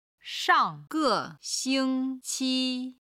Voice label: "shang4ge5xing1qi1", Chinese

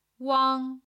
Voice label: "wang1", chinese; 老百姓(lǎo bǎi xìng)

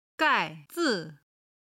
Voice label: "gai4 zi4", Chinese

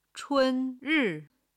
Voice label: "chun1 ri4", Chinese

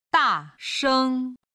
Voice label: "dasheng", chinese

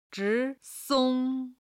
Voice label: "zhi2 song1", Chinese

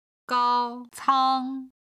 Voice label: "gao1 cang1", Chinese

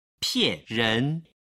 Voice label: "pianren", chinese

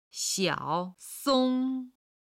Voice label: "xiao3song1", Chinese

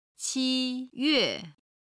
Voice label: "qi1yue4", Chinese

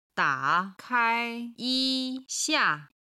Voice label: "da3kai1yi1xia4", Chinese

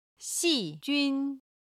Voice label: "xi4 jun1", Chinese

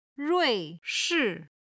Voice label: "rui4 shi4", Chinese

